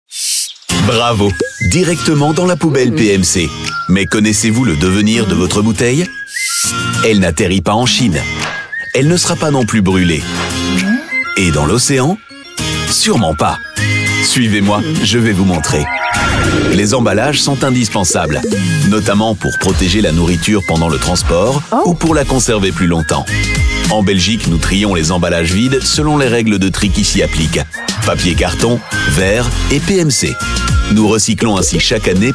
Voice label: french voice over male narrator

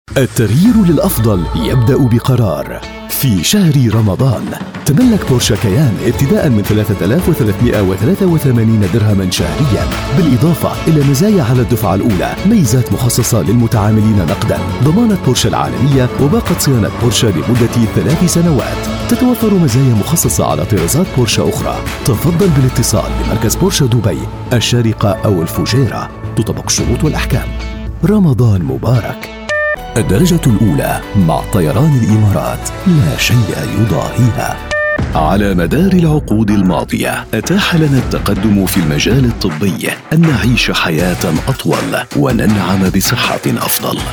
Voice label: arabic voice over male commercial

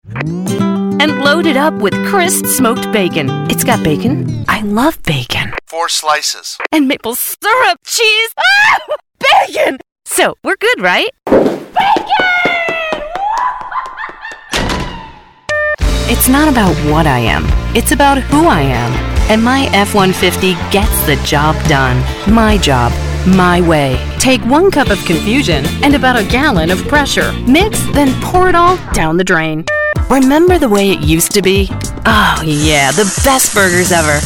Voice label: english american voice over female commercial

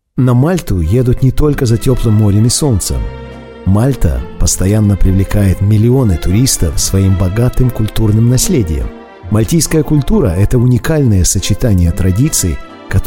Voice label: russian voice over male narration

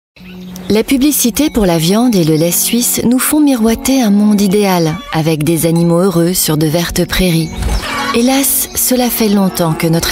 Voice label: french voice over female documentary

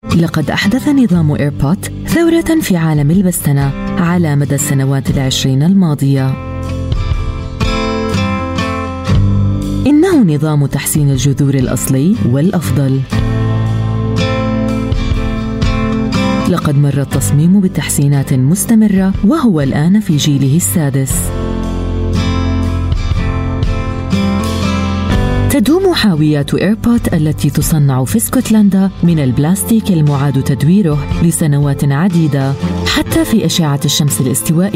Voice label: arabic voice over female narrator

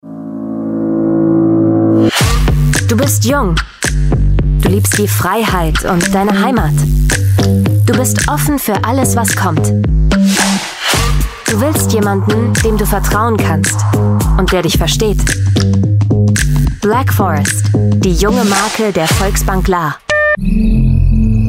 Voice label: german voice over female commercial

